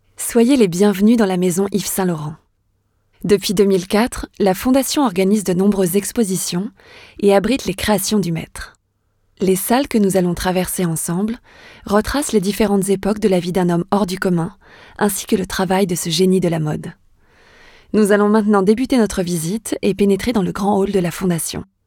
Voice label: Audioguide; YSL

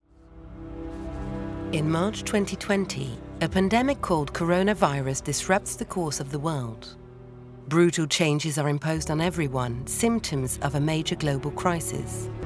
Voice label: ENG narration docu; Intro about society pandemic and art; HOOPER; VERMEER

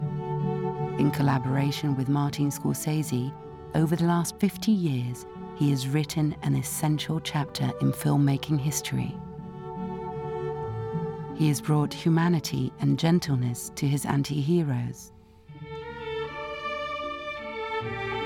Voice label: UK NARRATION docu; DE NIRO

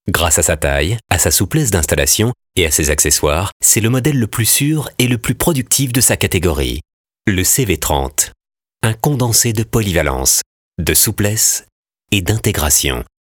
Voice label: Corporate

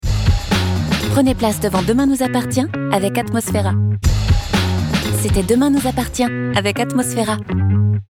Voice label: BILLBOARD FR; Atmosphera

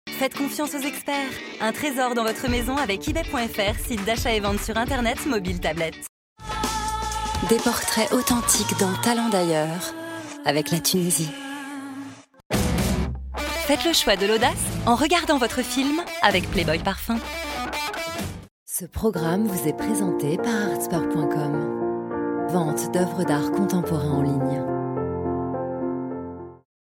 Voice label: billboard

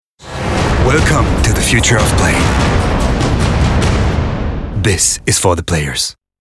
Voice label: ENGLISH; PLAYSTATION

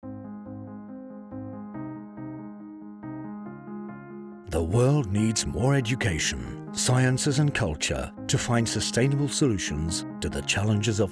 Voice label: voice over; unesco

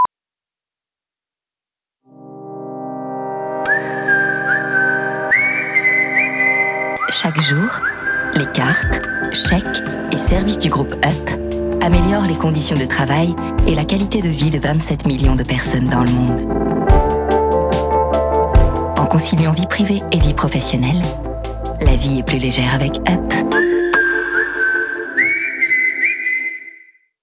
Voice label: SPOT WEB; MX UP

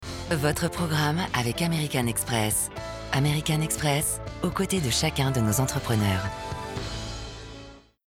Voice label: billboard; AMERICAN EXPRESS

